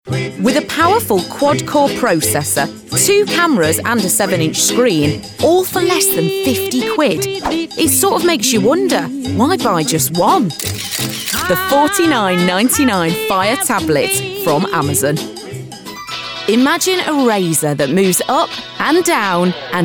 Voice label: Commercials

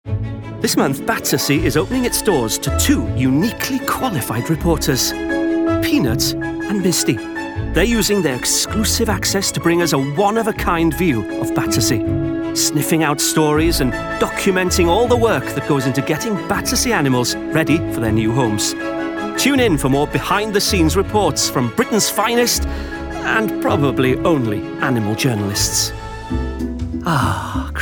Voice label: Commercials

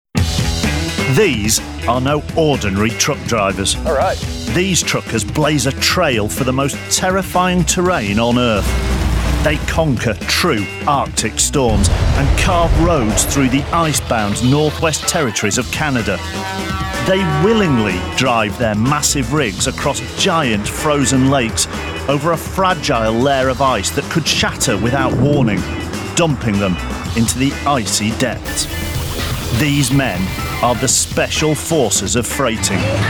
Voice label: narration

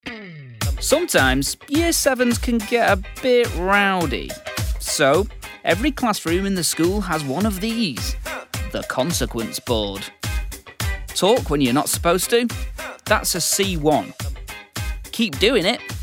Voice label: Narration

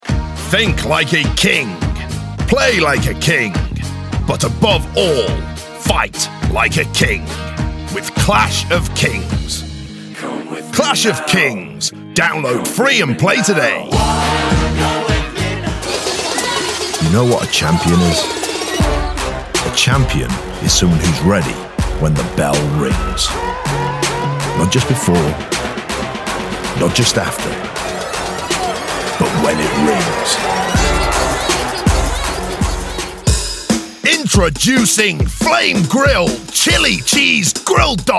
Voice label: Commercials

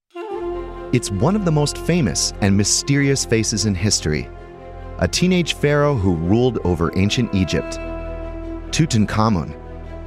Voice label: narration

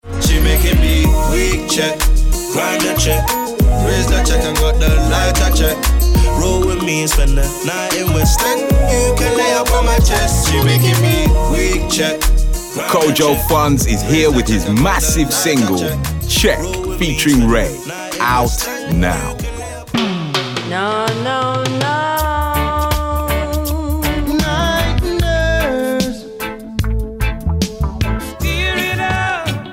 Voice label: Music Commercials

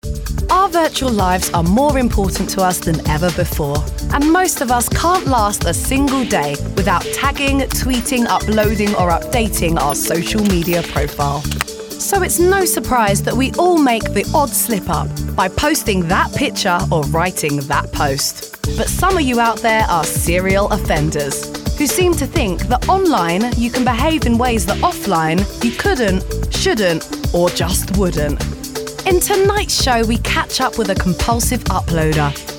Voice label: Narration

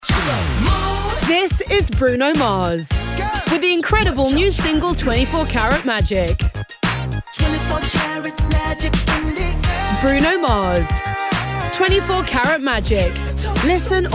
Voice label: Music Commercials

